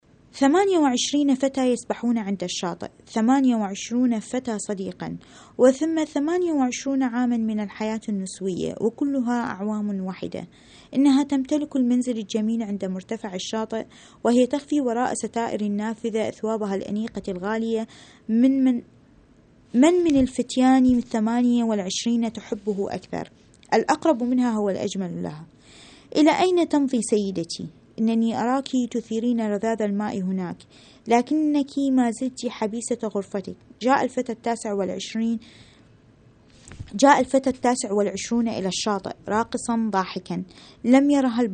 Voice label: arabic